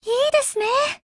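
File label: appreciate, Japanese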